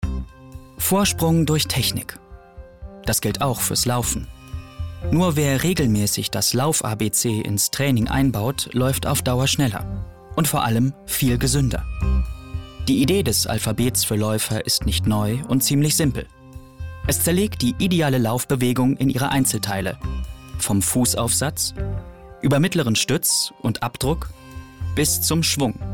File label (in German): kommentar